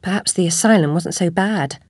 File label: chitchat; svencoop; Alice